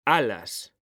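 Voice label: "alas", Spanish, male; mekanta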